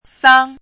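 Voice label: "sang", pinyin, first tone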